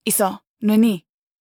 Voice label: neutral short